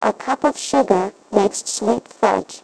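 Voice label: woman simulation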